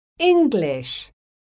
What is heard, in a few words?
"ENGLISH", English